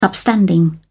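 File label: "upstanding", British English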